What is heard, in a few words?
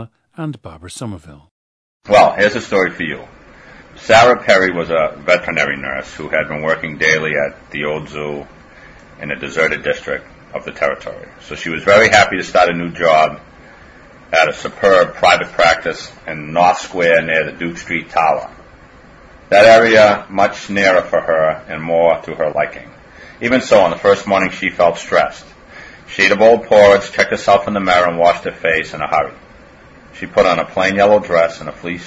massachusetts, dialects